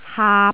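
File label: "haap3", Cantonese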